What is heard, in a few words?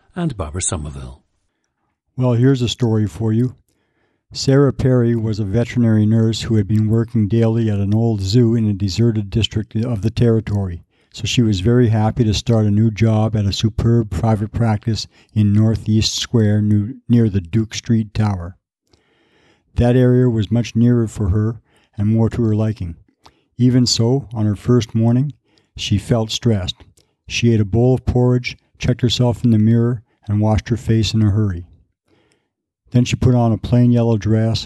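manitoba, International Dialects of English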